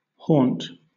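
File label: "haunt", British English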